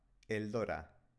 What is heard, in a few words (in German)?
"eldora", spanische maedchennamen